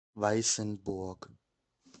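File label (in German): "Weißenburg", German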